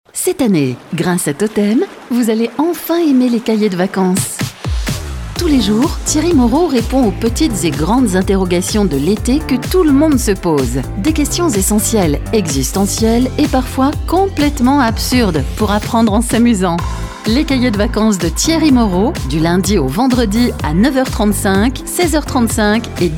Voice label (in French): voix off habillage radio; totem